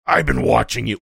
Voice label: gruff